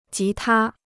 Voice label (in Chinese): "吉他", Chinese